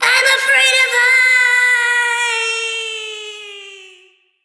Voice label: Troll VO Fall Quip